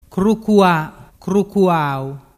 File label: "crucuaau", dialettu